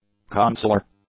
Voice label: "consular", English; adjectives